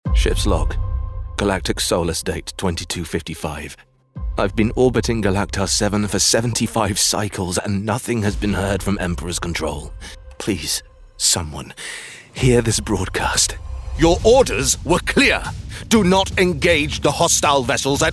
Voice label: english british video games